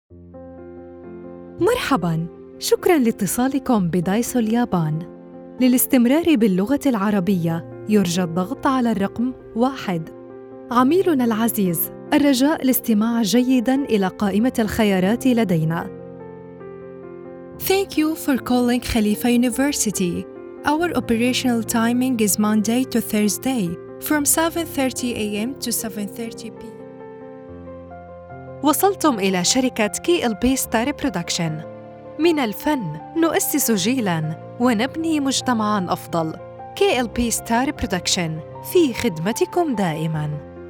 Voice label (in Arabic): عينة رد آلي